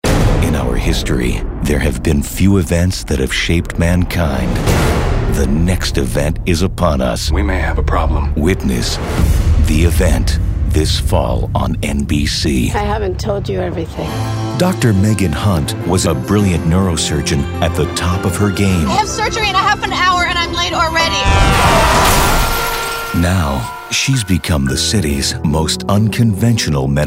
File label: promos drama, men